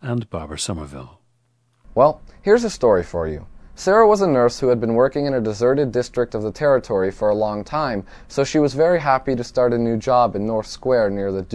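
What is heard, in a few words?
florida, International Dialects of English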